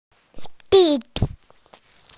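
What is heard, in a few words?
"younger brother", Mandarin, hearing-impaired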